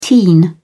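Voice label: "teen", British English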